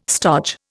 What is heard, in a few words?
"stodge", Indian